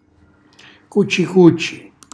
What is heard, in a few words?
"cuccicucci", Calabrese